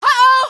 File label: "uh oh", female; npc; Garry's Mod; hl2